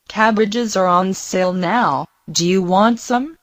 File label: Mandarin Conversation